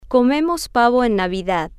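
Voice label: comemos pavo en Navidad, Spanish, female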